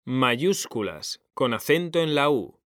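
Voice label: "mayúsculas", Spanish, male; mekanta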